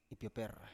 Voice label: "ipioper", Biak

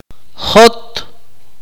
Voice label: "dig", Akateko

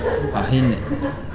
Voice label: "ahine", Nukuoro; Nukuoro Talking Dictionary

